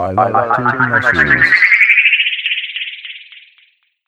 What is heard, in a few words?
MUSHROOMS, Funky Vocals; USB Soundscan vol.18; AKAI; COMPUTER